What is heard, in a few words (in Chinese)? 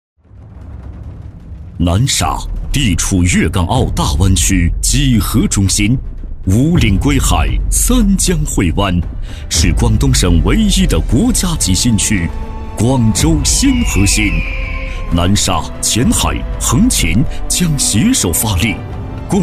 男 宣传片 磁性厚重硬朗; 高端厚重; 大气浑厚; 南沙